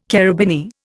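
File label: "carabineer", Indian English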